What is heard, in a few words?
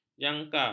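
"jangka", Malay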